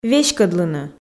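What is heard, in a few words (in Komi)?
"веськӧдлыны", Komi, female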